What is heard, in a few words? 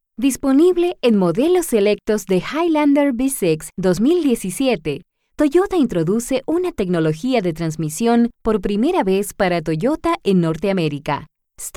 product presentation video Spanish